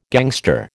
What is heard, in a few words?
"gangster", American